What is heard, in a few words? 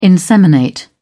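"inseminate", British English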